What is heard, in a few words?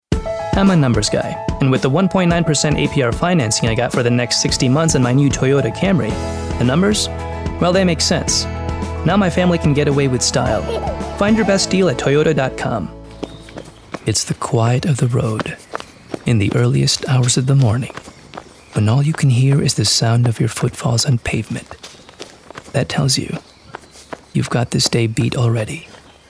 VO Commercial